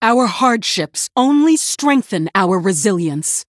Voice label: Female Human Play

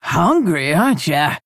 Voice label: Female Orc Play

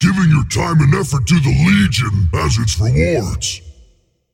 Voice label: male demon, Bark

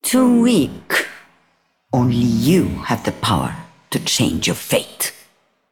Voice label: Female Broker Combat Loss

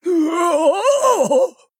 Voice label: Male Tortollan Death

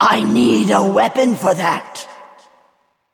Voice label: Female Val'kyr ERROR NEED WEAPON

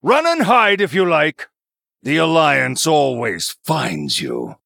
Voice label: Male Human Victory; Story Hero Uther

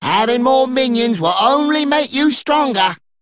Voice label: Male Dredger Hire

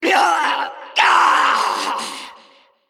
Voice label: Female Dragon Death

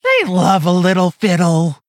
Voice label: Female Quilboar Play

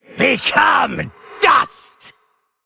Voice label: Male Undead Attack

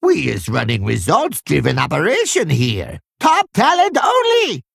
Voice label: Male Kobold

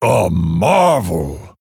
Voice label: Male Orc Wow

